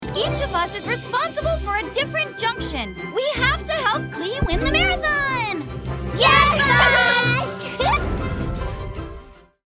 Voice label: little girl cartoon voice

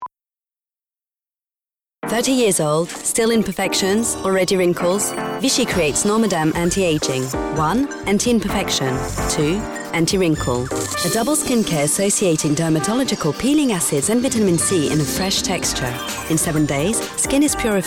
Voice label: UK; normaderm

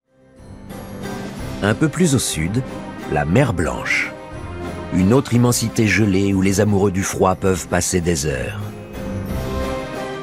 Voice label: NARRATION; L'EXTREME ORIENT RUSSE

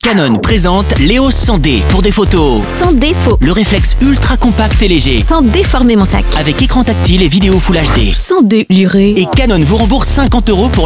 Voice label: Spot; FUSE; Canon Eos 100D

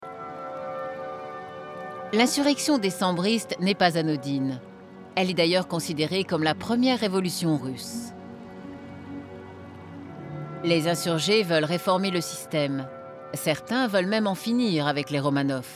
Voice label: narration; TSAR

